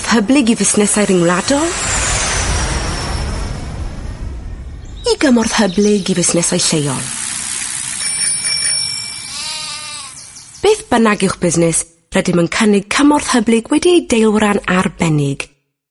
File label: Welsh F Commercial; voice-over